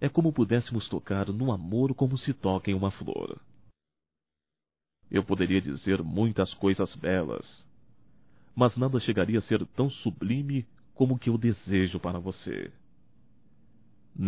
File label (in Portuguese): NATAL IRMAO, masc; Natal e ano novo; Telemensagens 2017